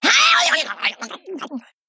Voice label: Female Night Elf Death